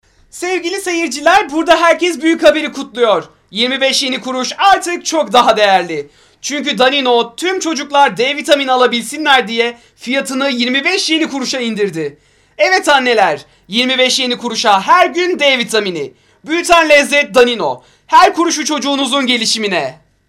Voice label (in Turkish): reklam